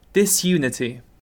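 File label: "disunity", British English; pronunciations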